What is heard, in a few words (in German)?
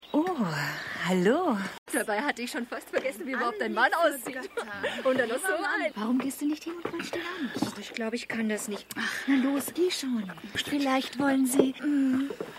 Frauen; Hercules